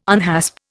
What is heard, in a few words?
"unhasp", Indian